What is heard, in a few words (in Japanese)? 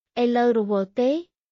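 クメール語（カンボジア語）の日常会話基本フレーズ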